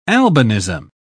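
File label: "albinism", British English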